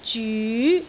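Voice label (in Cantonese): "zyu2", Cantonese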